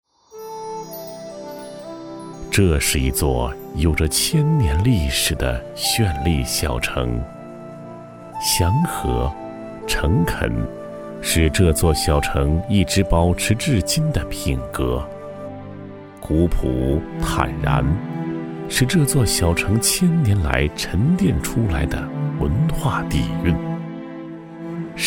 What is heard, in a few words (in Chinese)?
男 纪录片; 襄城记忆